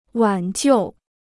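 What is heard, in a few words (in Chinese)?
"挽救" Chinese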